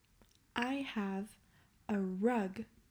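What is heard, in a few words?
"I have a rug", English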